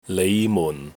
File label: "nei5 mun4", Cantonese